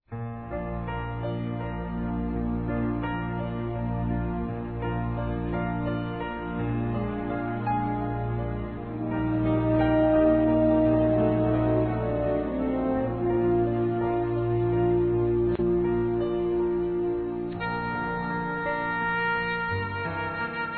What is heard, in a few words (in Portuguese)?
SOGRA RELIGIOSA, Masc; Dia das Mães; Telemensagens 2017